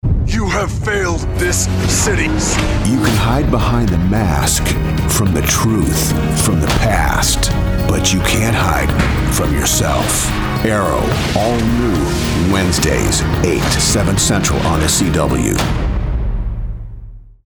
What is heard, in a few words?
TV Promo; Arrow